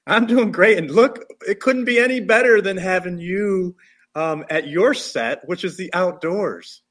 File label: en prompt; mooncast